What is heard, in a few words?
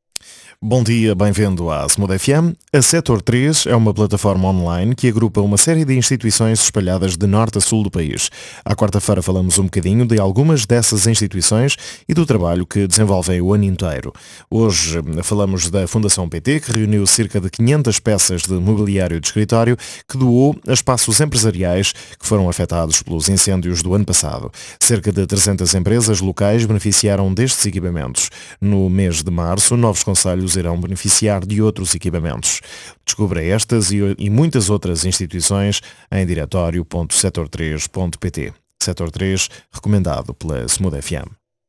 spot radio